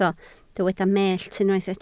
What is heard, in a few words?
"mellt", Welsh